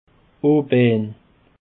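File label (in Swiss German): "oobaan", Alsatian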